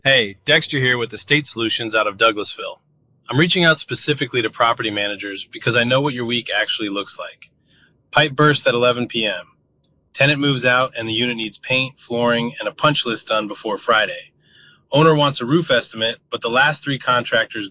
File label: voicemail